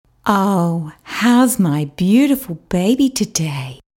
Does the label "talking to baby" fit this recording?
Yes